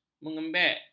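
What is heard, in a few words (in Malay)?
"mengembek", Malay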